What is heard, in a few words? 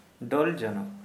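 Santali male soft broom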